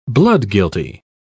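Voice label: "bloodguilty", British English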